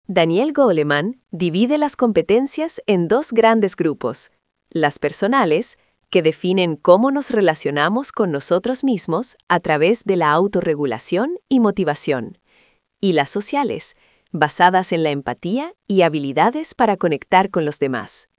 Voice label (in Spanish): locucion